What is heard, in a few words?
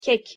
"kek", Turkish